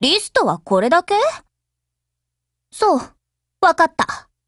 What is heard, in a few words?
OPERATION BEGIN JP; MP7